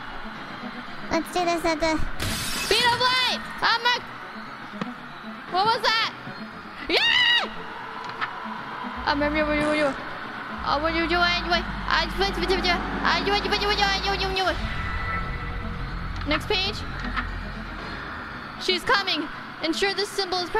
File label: summoning but she is panicking; IRyScream